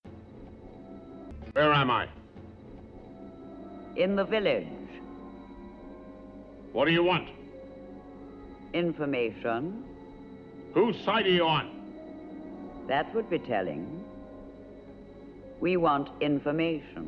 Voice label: female